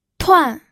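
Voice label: "tuan4", Mandarin Chinese, pinyin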